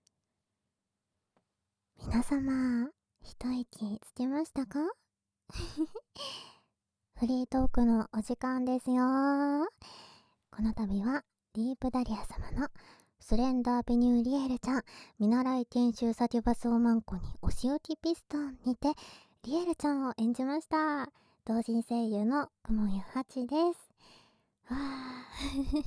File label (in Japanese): フリートーク, ASMR